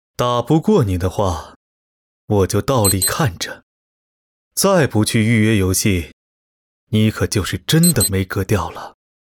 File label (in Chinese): 男 角色模仿, 年轻质感 素人自然; 如来